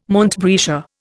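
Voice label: "montbretia", Indian English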